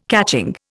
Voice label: "catching", Indian

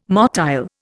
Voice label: "motile", Indian

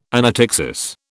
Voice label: "anaptyxis", British

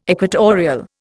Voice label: "equatorial", Indian

